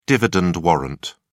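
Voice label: "dividend warrant", British English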